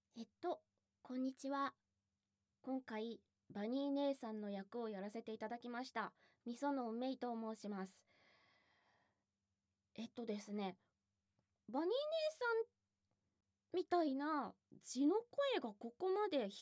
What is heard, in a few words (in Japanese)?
フリートーク